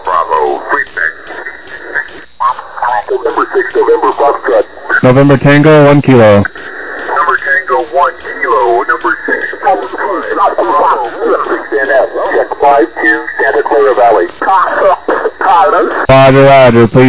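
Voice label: upper sideband 21MHz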